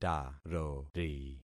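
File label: "tarori", concatenated syllables; Affixing experiment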